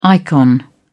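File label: "icon", British English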